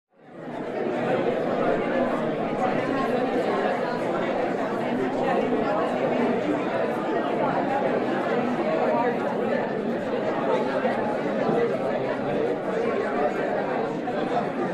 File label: people talking